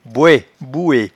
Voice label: "boetbouet", patois jura